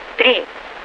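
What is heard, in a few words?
Russian speech, Mi-8MT RI65; DCS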